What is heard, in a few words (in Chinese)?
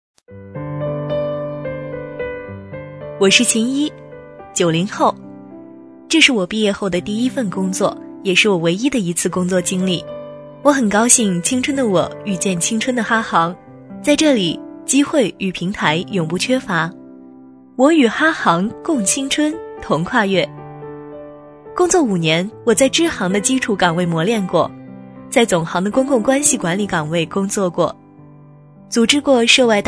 女声 微电影